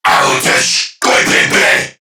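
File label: Player Spotted Crewmen, English; Dialog; Barks; Battle; Warframe; CorpusCrewmen; CrewmanA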